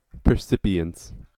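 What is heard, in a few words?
"percipience" English, US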